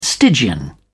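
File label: "stygian", English, uk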